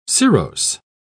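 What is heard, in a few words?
"cirrose", British English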